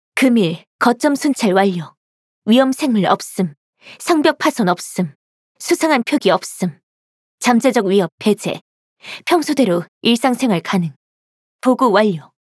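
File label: voice Korean welcome; Rhythm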